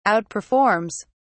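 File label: "outperforms", British English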